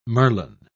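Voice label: "merlon", British English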